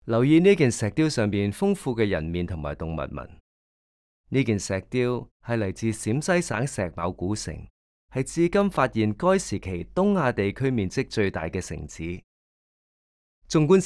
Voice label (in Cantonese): Can VO; audio guide